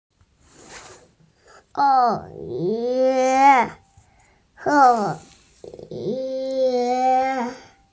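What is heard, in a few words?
"ke3ai4", Mandarin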